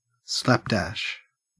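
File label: "slapdash" English, Australian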